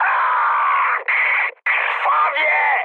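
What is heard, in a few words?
Polish damaged pilot mood high; War Thunder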